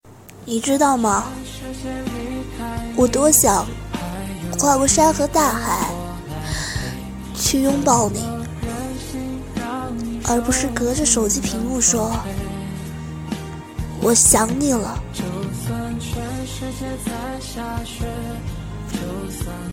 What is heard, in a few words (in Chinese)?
温柔女声